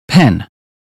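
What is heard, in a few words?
"pen", British phonetics; 英语音标发音（剑桥版）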